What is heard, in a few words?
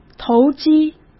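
"投机", Mandarin Chinese; hsk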